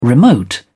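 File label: "remote", English, British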